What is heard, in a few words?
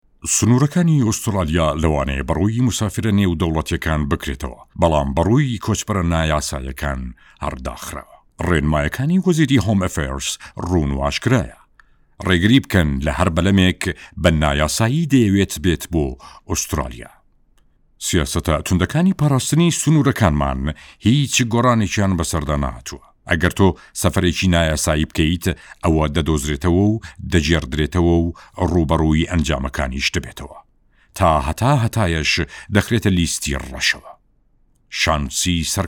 Sorani Educational, Male, Adult, Kurdish - Sorani